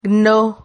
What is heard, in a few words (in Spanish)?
"gno", Silabas